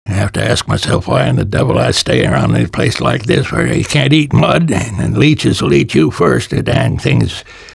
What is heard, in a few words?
fish mumble; Half-Life 2; Lost Coast